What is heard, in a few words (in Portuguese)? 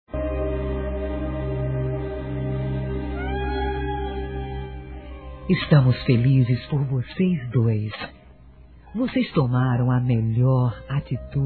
HOMENAGEM AOS NOIVOS PELO CASAMENTO F; fem